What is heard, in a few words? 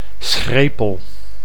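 "schrepel", Dutch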